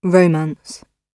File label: "romance", English